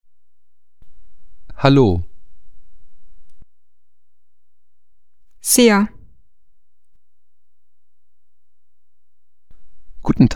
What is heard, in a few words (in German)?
ungarisch